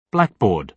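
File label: "blackboard", British English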